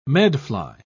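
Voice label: "medfly", English, British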